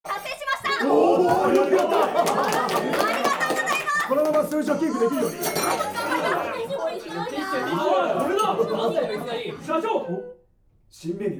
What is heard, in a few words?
Drama Meeting Stereo; Standard test materials for three-dimensional multichannel stereophonic sound systems